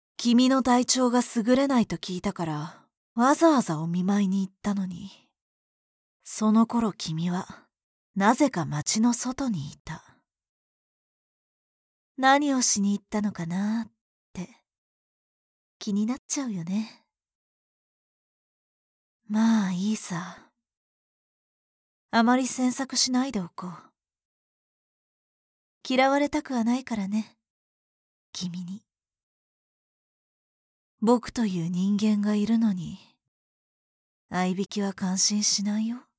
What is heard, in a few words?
girlish